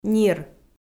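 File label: "нир", Komi, female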